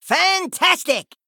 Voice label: Male Gnome Attack